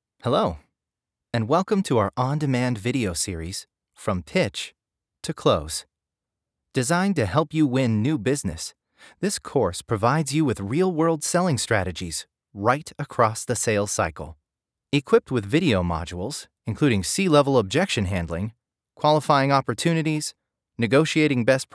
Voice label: eLearning